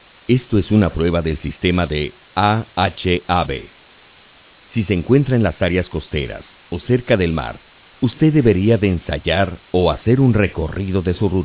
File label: Spanish, Tsunami; Emergency Management; Evacuation Siren